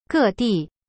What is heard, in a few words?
"各地", Chinese